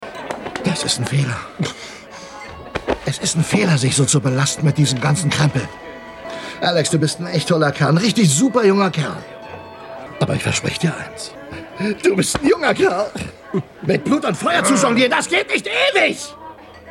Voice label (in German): Schauspiel Witzig